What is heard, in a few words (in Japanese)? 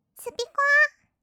スピコア, kawaii voice; ASMR; 音楽ジャンル